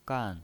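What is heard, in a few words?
"gan", Mandarin Chinese, fourth tone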